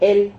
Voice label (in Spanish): "hel", Silabas